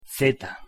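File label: pronunciation "z", Spanish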